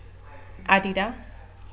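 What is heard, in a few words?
"Adidas", British English, female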